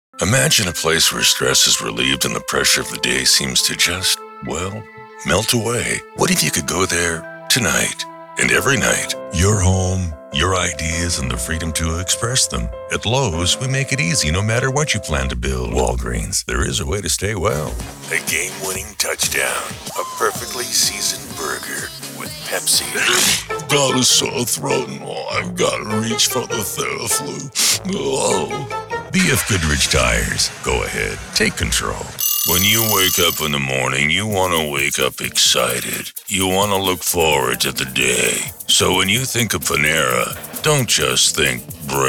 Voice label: Commercials